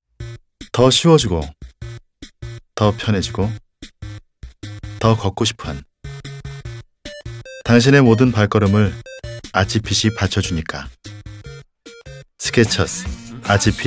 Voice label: 정확한 브랜딩 전달 느낌; 스케쳐스

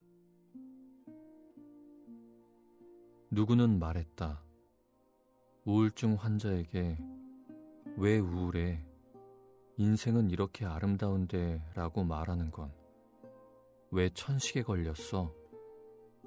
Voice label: 담담하고 차분한

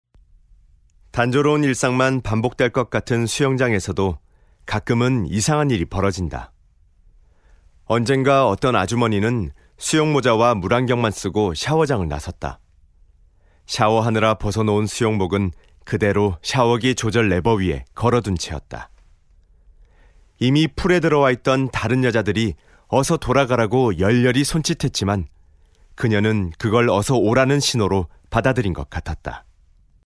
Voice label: 내레이션 일반

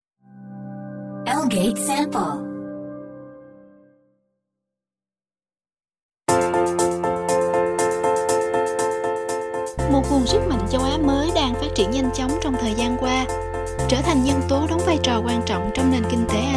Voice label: 베트남 여 호치민 밝은톤

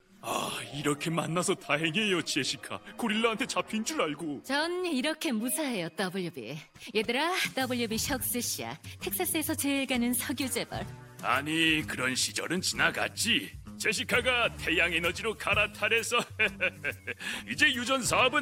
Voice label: 60대 인자하고 덩치있는 할아버지 따뜻한; KBS

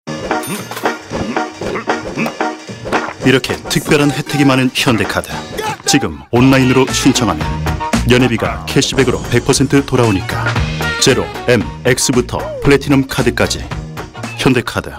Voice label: 감각적인; 현대카드

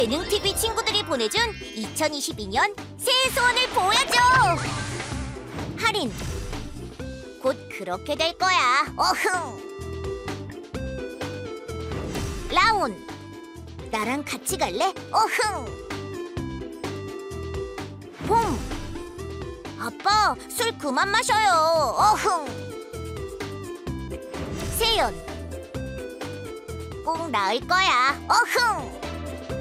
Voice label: TV 스팟 귀여운 남아

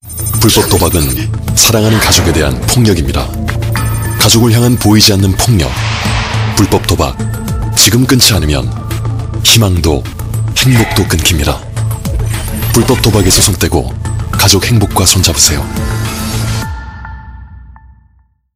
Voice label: CF 경각심 진중한 공익광고

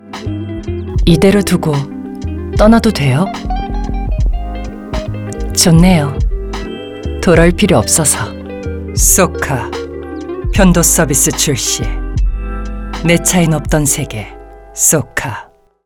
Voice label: 연기 나레이션 차분한 30대; 소카

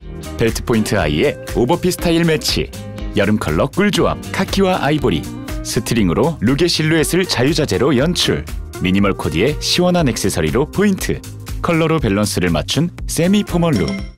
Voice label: 힙 n 트렌디

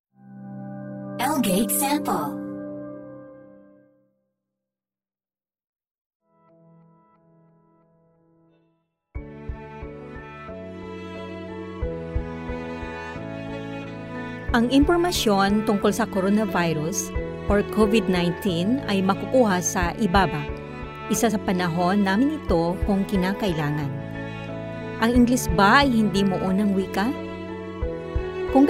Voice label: 필리핀 여 기본톤

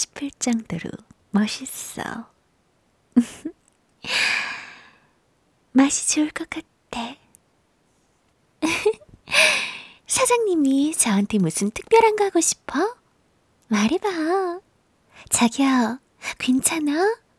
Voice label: 게임 섹시한 여대생 20대

